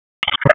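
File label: EMS DISP, radio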